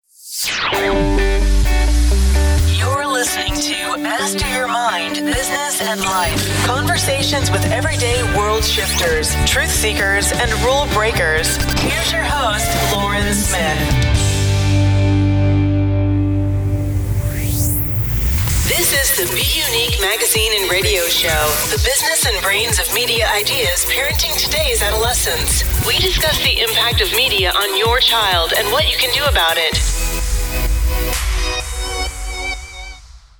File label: english american podcasts